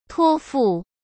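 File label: "托付", Mandarin Chinese; hsk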